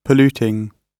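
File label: "polluting", British English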